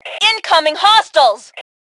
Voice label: Warn Enemies, Female; T2 Female 01

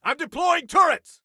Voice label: Self Task Deploy Turrets, Soldier Male; Soldier Male 02

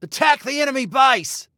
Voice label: Attack Base, Male

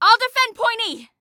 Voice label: ILL DEFEND, Female; T2 Female 01

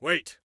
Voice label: Respond Wait, Soldier Male; Soldier Male 02

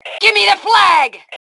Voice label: Flag Give Me, Female

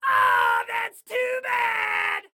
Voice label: Taunt Aww, Excited Guy

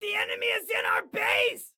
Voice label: Enemy In Base, Excited Guy

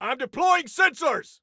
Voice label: Self Task Deploy Sensors, Soldier Male; Soldier Male 02

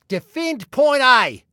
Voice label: DEFEND, Male; Male 02

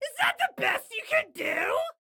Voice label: Taunt Obnoxious, Excited Guy

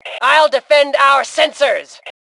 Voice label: Self Defend Sensors, Female; T2 Female 04

